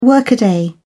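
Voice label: "workaday", British English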